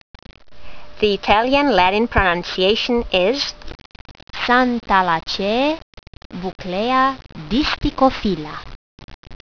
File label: latin; Buckleya distichophylla